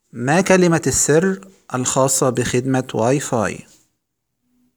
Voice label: wifi password arabic